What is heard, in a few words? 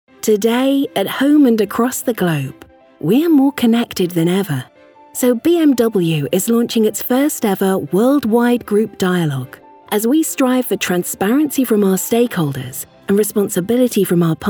Narration Showreel